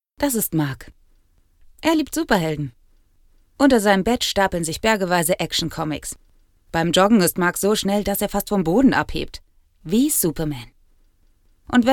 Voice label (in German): ImageFilm